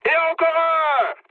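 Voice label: French player killed enemy mood high; War Thunder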